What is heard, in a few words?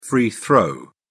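"free throw", British English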